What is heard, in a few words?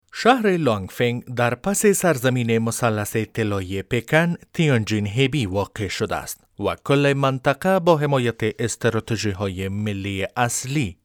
Dari Narration